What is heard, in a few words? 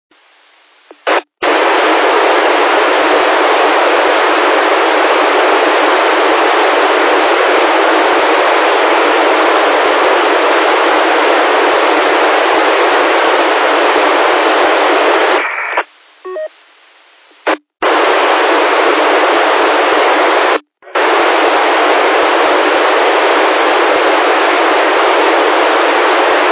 qso rec repeater logic